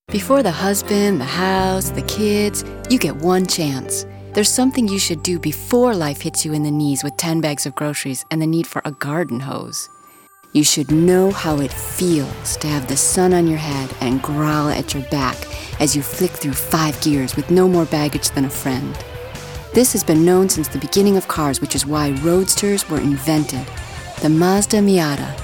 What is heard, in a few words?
Voiceover